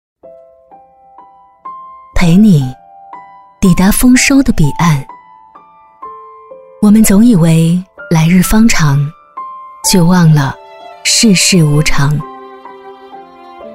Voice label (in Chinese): 女 旁白; 山; 抵达丰收的彼岸